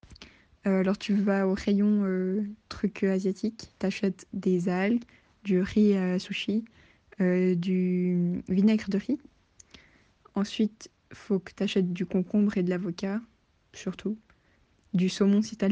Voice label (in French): vocal INSTRUCTIONS